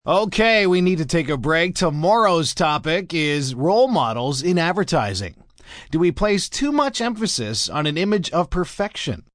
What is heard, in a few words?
Role Models M, Broadcast, announcer, breakaway, male